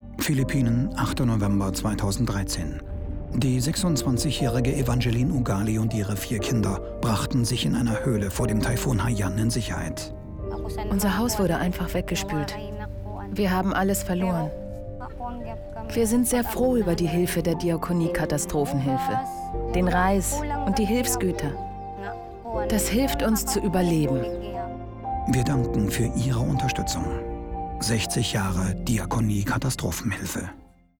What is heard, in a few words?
Radiospot; spot philippinen dkh